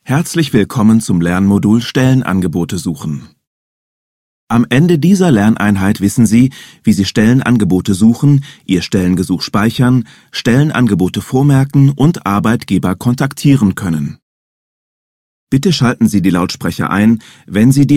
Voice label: E-Learning

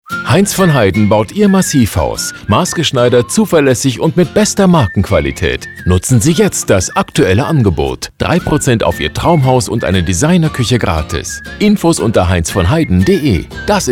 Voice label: Werbung Mix

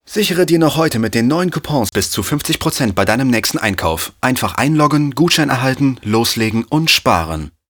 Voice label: Werbung

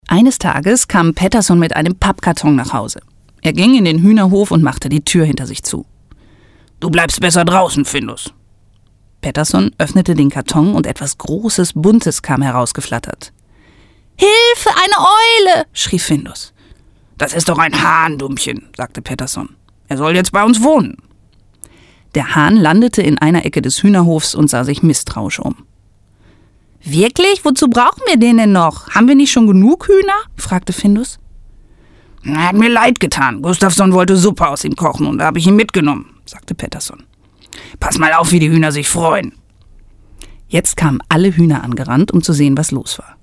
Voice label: kindergeschichten

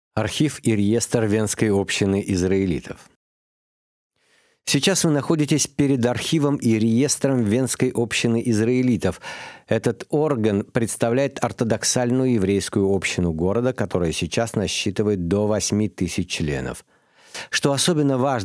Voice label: Audioguide Archiv

